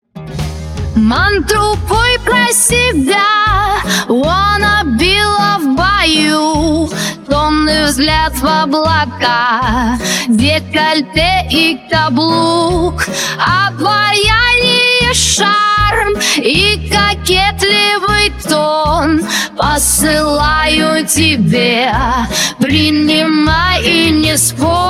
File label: Orig Female